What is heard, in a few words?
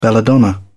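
"belladonna", British English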